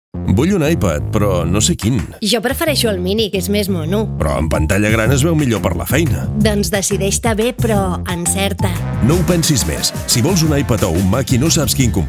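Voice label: Catalan General; GoLocalise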